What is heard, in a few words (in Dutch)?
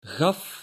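"gaf", Dutch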